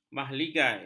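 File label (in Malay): "mahligai", Malay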